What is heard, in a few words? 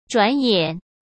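"转眼", Mandarin Chinese; chinese flashcards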